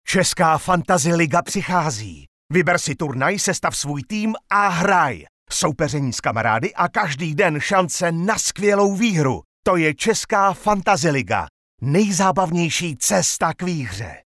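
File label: Male Czech commercial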